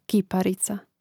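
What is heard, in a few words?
"kiparica", Croatian; Mrežnik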